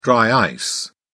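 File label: "dry ice", British English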